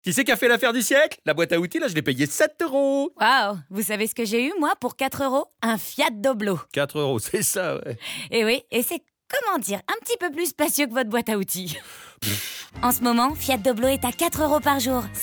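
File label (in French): F FR Pub Radio, French, female; FIAT PRO Doblo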